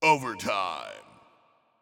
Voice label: Announcer Match Overtime; Paladins